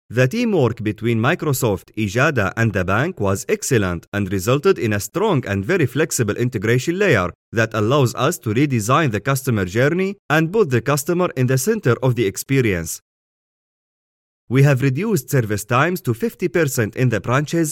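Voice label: Arabic Accented English, voice demos; GoLocalise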